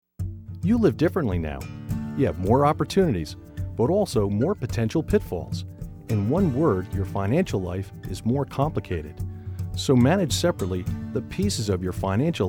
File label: vo male